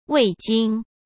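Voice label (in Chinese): "味精", Mandarin Chinese; chinese flashcards; hsk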